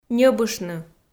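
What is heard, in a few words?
"ньӧбыштны", Komi, female; audio dict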